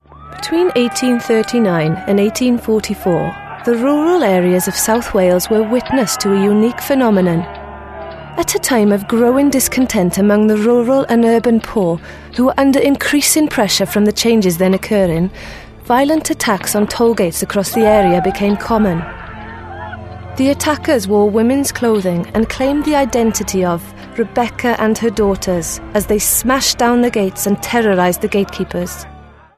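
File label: Welsh, English; Welsh, Male, 20s-30s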